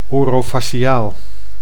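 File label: "orofaciaal", Dutch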